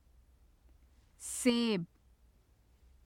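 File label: "seb", Urdu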